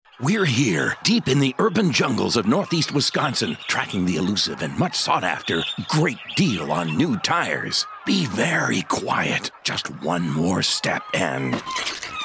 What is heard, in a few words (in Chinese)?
外籍英男 角色模仿